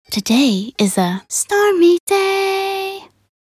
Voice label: voc en weather stormy